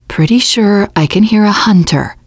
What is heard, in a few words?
heard hunter, teen girl; player; survivor; voice